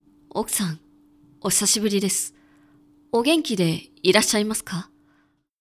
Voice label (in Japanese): dansei, okusanohisashiburidesu ogenkideirasshaimasuka; 男性 「奥さん、お久しぶりです。お元気でいらっしゃいますか？」; クール